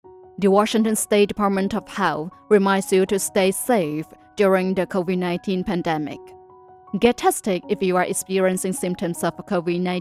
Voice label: English commercial

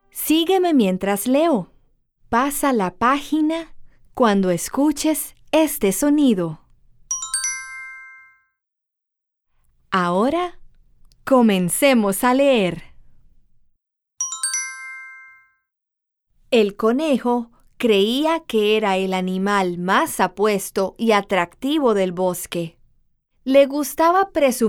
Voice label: spanish latin american audiobooks

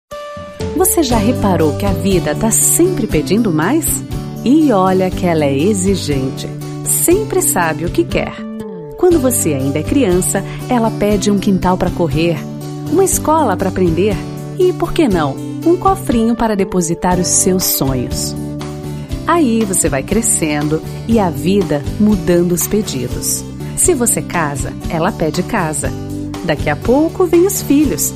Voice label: Portuguese Brazilian commercial

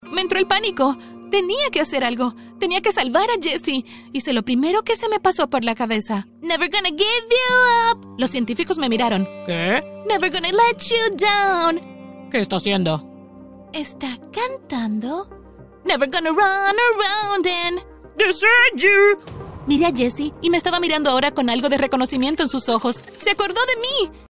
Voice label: spanish latin american animation

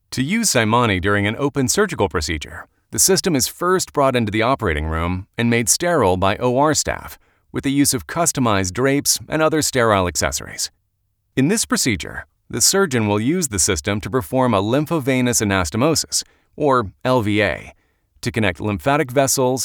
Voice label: english american medical narration; Italy

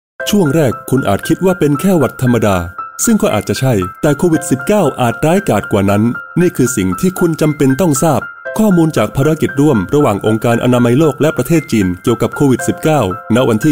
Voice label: thai documentaries

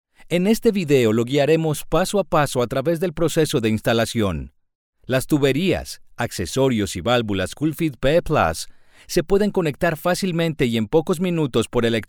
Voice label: spanish latin american e-learning

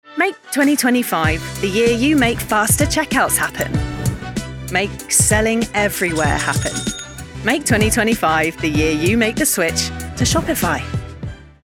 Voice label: english british promos

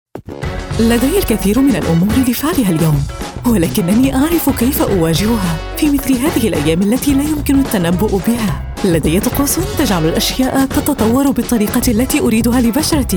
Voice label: arabic commercial; Arabisch; Palästina

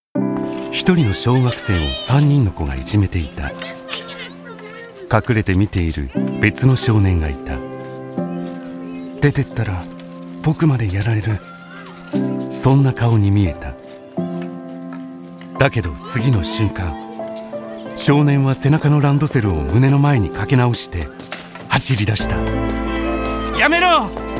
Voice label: japanese promos

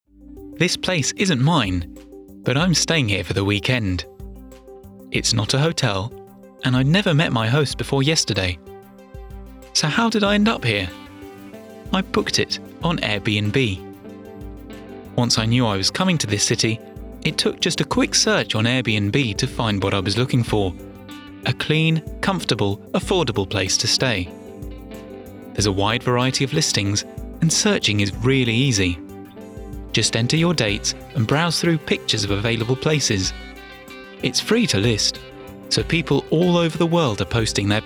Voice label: english british explainer videos; Francés Inglés (Reino Unido)

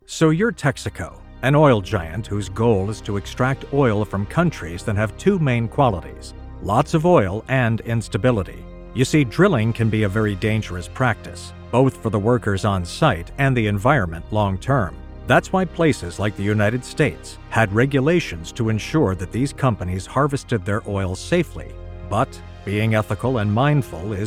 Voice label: english american documentaries; American voice actor

